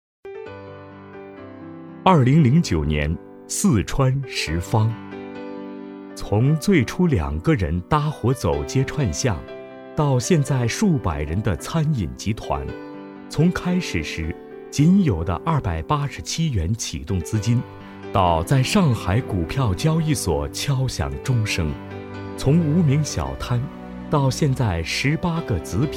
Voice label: 四川

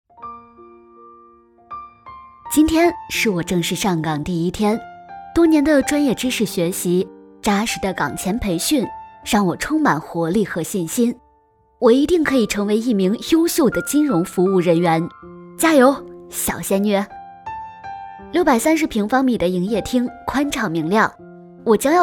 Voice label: 自述

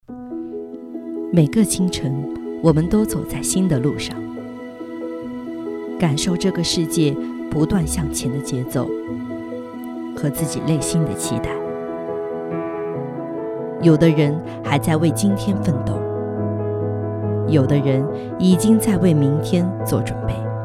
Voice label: 广告词; 特仑苏

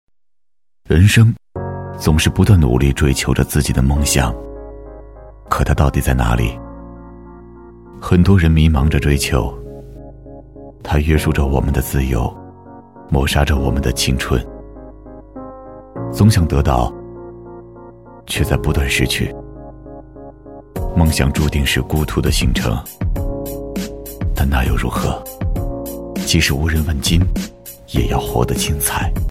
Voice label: 走心公益

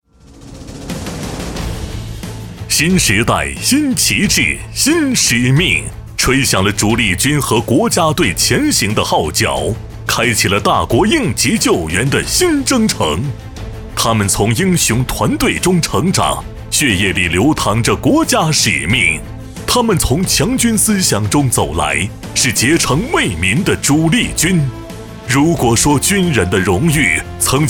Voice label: 大气激情; 开启新时代

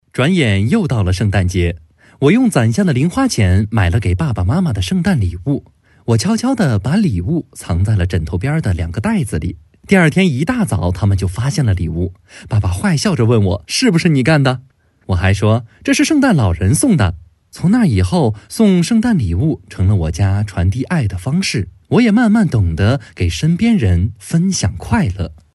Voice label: 小作文配音

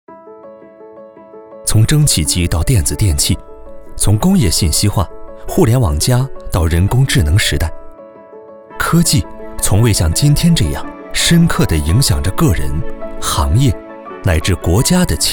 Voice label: 轻快企业宣传

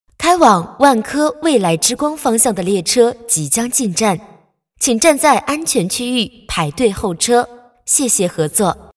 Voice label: 地铁报站

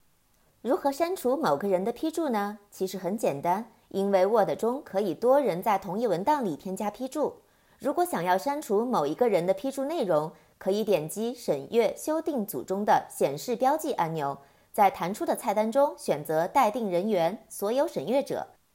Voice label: 操作指南配音

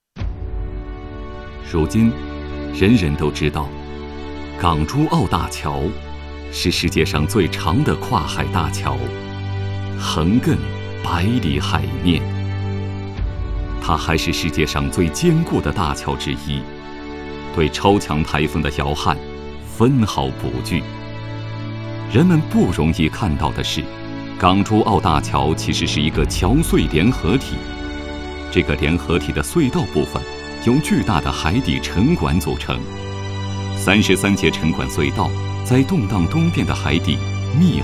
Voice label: 纪录片样音

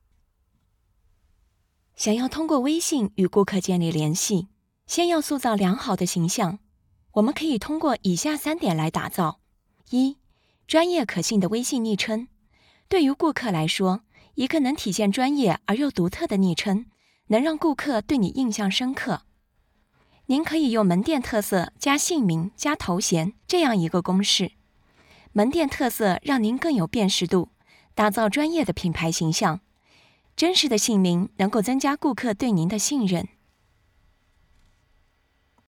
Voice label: 中音

